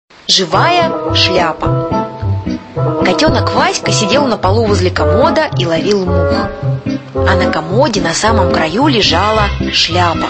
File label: Russian